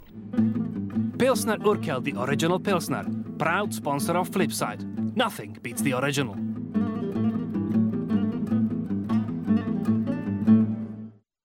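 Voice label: Czech, accented English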